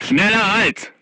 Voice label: voice message short stop, crew tanks german

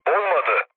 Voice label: bomb failure, mood low, speech tur; War Thunder

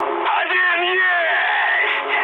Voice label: player killed enemy, mood high, speech ru

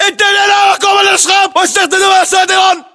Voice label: order cover lumber pile, battle chatter, english

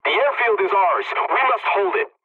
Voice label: pilot capture ground ally, english; War Thunder

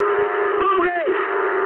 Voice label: eng enemy detected mood high, speech eng

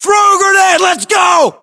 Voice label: order action grenade, US

